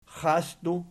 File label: "xasdu", Archi